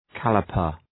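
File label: "calliper", English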